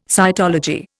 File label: "cytology", Indian